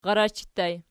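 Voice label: rarachi ergative plural, Archi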